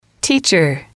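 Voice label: "teacher", English, female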